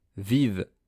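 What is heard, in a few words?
"vive", French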